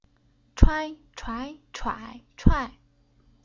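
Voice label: "Chuai", Chinese syllable; Уроки фонетического блока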